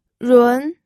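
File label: "run2", Mandarin Chinese pinyin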